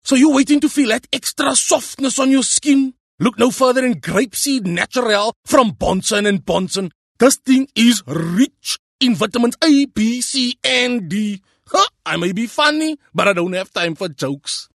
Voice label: English Conversational Cape Coloured